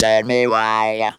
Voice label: "tell me why", Funky Vocals, girls; USB Soundscan vol.18; AKAI; TB GIRLS

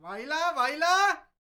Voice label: MEXICO VOICE, MEXICAN; ILIO; Vocal Planet VOL-5

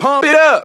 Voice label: Vocals

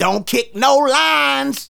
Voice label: Don't Kick No Lines, Hip-Hop and RnB Vocals

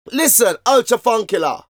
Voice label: Vocals; Jungle Frenzy 1

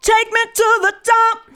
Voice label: TAKE ME TO, FEMALE VOICE; Best Service; Hallelujah

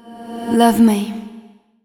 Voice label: fem spo, Vocals; Mutekki Media; Diamond Vocals 2

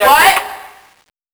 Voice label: vox "what" 12khz; M8JUNGLEPAK1

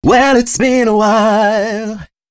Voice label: male, Dance Ultra Voice hooklines

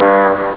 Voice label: stall voice; Amiga Soundtracker Sample Packs

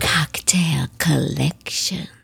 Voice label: sexy, Spoken; Kocktail K Spoken

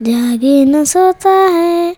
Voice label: CHILD VX, Indian Traditions

